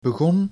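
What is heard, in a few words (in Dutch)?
"begon", Dutch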